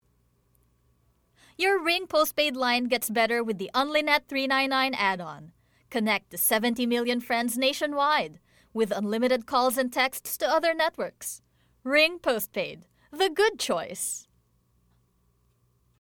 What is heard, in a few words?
English (Energetic, Excited)